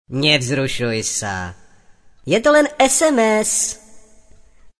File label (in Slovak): sms hlásky cz